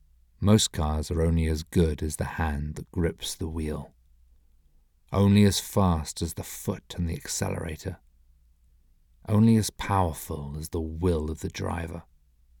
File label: Commercial; Infiniti